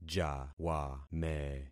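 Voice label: "jawame", concatenated syllables; Affixing experiment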